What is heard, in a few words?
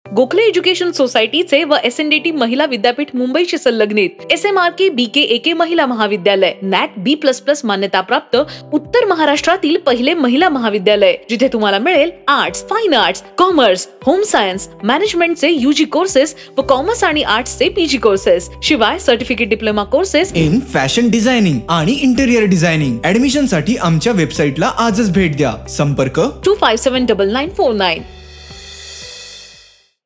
Advertisement Audio